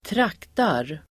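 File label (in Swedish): "traktar", Swedish; Uttal: [²trak:tar]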